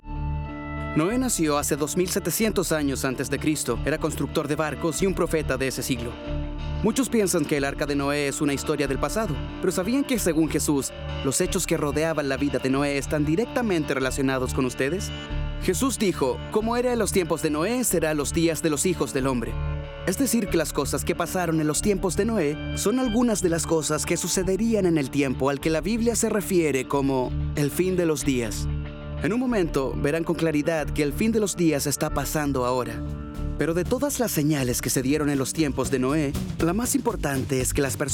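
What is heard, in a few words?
latam male commercial; voice demos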